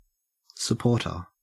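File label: "supporter", Australian English; pronunciations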